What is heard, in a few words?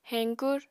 "heñkur", apatani